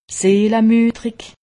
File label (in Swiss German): "seelemudrig", alsace; medecine